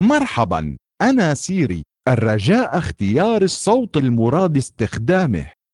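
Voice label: Arabic, Saudi Arabia; ar-SA; iOS 26 Sounds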